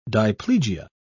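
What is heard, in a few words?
"diplegia", British English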